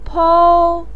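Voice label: "pou1", Mandarin pinyin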